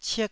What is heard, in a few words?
"cik4", taiwanese